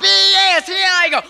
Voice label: b e s t i go, Voice gibbrish